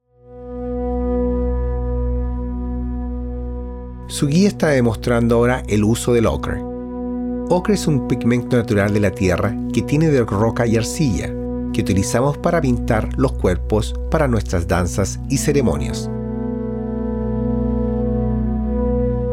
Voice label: Spanish; Mossman Gorge

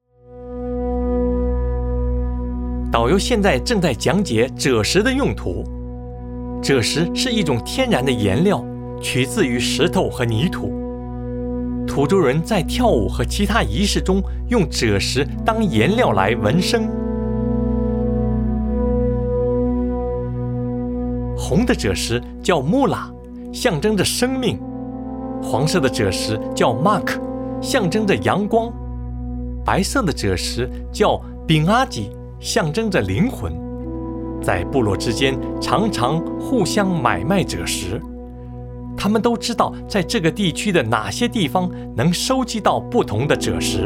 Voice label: Mandarin; Mossman Gorge

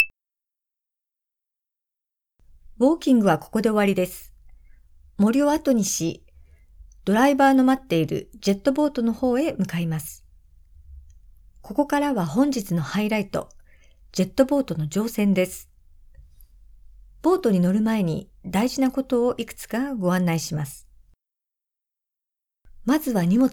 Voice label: japanese; dartriver

